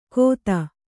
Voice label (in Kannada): "ಗೋಧ", Kannada